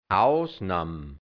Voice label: "Ausnauhm", hianzisch Mundart Burgenland; Ausnahme; Ausgedinge

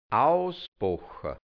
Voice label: "ausbocha", hianzisch Mundart, Burgenland; ausgebacken; gesund sein

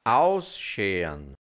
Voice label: "ausschean", hianzisch Mundart Burgenland; auskratzen

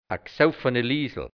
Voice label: "a gsouffani Liesl", Hianzisch, Mundart, Burgenland; gsouffn

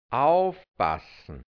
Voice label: "aufpassn", hianzisch; auflauern; aufpassen

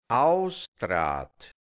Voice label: "ausdraht", hianzisch Mundart, Burgenland; ausgedreht; ausgerenkt

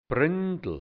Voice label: "Bründl", Burgenland Dialekt hianzisch; Brunnen

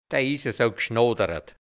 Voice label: "de(i) is jo sou gschnodarat", Hianzisch, Mundart, Burgenland; sie ist sehr geschwätzig; gschnoderat

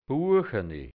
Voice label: "buachani", hianzisch Dialekt Burgenland; aus Buchenholz

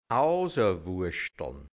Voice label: "ausawuaschtln", hianzisch Mundart, Burgenland

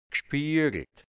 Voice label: "gschpiaglt", Hianzisch, Mundart, Burgenland; gespiegelt; auf Hochglanz poliert

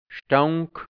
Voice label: "Sta(u)nk", Hianzisch, Mundart, Burgenland; Gestank; Undank

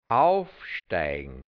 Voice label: "aufsteign", hianzisch; aufsteigen; zornig werden

